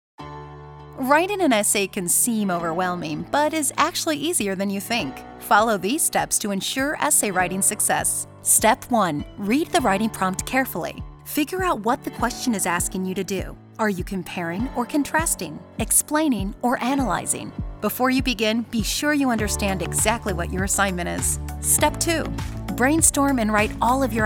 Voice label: eLearning